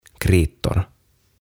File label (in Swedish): "Kritor" "Liidut"